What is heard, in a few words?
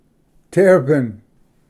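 "terrapin", English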